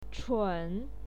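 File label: "chun", Mandarin Chinese, third tone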